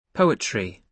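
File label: "poetry", British English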